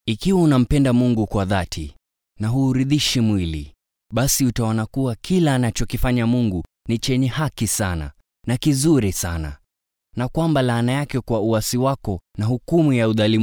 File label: locutor swahili voiceover; locutor suajili